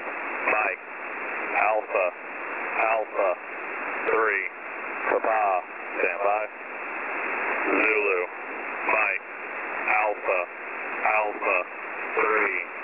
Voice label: EAM - 6739 kHz

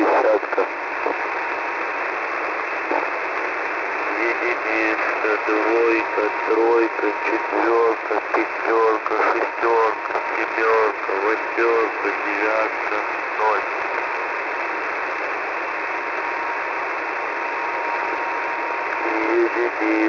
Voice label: Russian Numbers Broadcast, 5959.00 usb; UNID

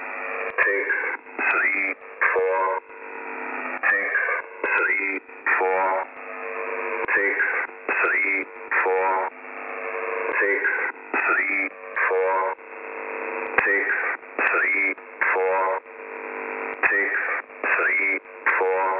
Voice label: training 5197.0kHz

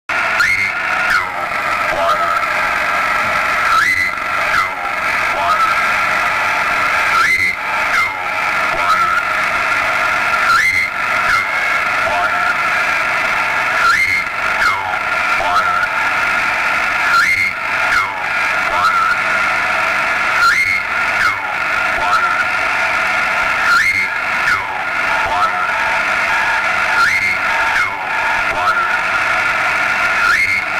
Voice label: E06 Training 4836 KHz; 2030 GMT; Crema

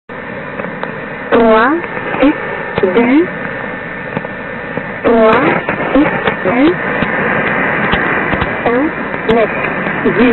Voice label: The French Lady, numbers stations

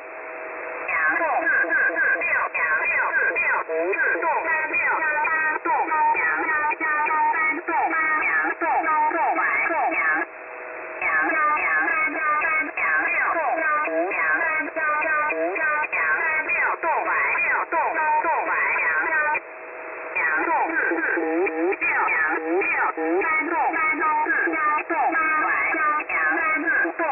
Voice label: the chinese robot

